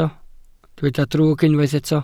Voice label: "drwg", Welsh

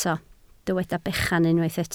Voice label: "bechan", Welsh

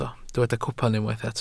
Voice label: "cwpan", Welsh

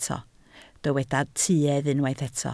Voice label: "tuedd", Welsh

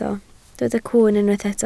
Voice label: "cwwn", Welsh

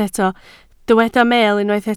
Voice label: "meel", Welsh

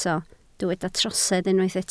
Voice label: "trosedd", Welsh

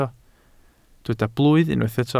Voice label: "blwydd", welsh

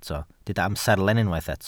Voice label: "amserlen", Welsh

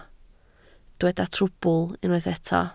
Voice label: "trwbl", Welsh

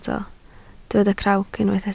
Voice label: "crawc", Welsh

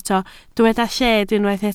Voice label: "lled", Welsh

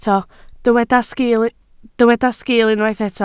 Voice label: "sgiil", Welsh

